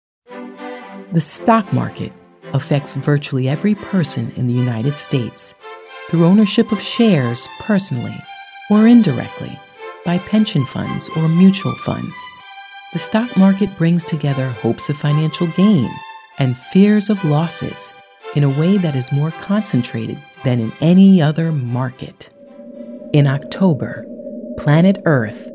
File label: Explainer